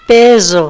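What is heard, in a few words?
"perro", Spanish; fonetica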